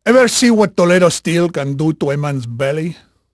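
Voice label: Spanish male, voice; Pirates Of The Caribbean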